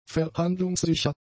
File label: "verhandlungssicher", German; adjectives